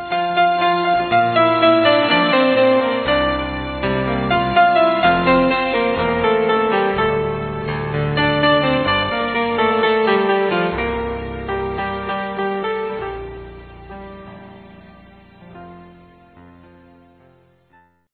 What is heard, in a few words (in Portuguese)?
Aniversário Compadre Masculino; Aniversários; Aniv Familiar